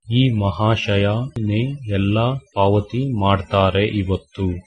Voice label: pay, kannada